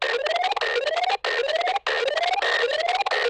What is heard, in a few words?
Enemy Alarm, Dialog Barks Battle; Warframe; Lasrian